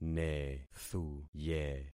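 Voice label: "nethuye", concatenated syllables; Affixing experiment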